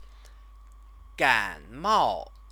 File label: "ganmao", Chinese; Chinese lessons